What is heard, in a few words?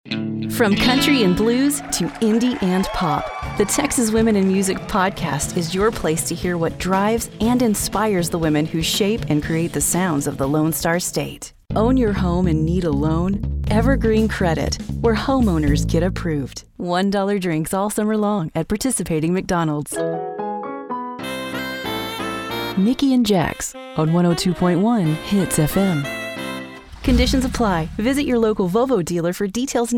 Promos